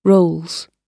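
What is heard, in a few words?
"rolls", British English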